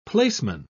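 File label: "placeman", British English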